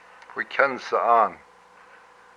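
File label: "my heart", Hupa, male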